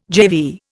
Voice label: "GeV", Indian